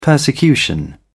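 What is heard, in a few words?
"persecution", British English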